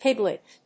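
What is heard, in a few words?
"piglet", English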